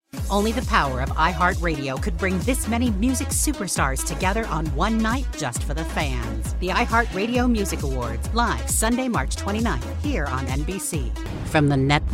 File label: Promo